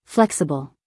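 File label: "flexible", American English, female; everyone-can-use-english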